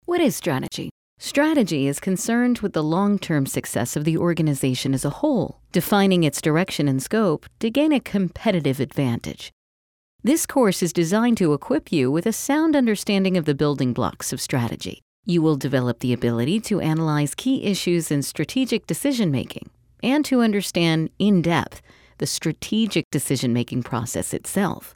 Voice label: Narr, female voice